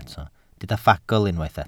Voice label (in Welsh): "ffagl" Welsh